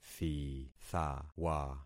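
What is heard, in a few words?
"thithawa", concatenated syllables; Affixing experiment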